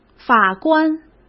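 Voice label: "法官", Mandarin Chinese; hsk; chinese-flashcards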